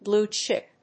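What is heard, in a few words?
"blue chip", English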